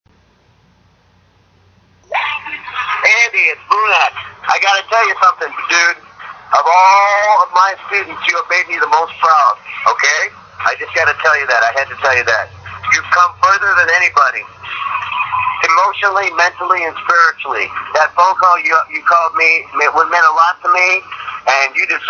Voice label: Voicemail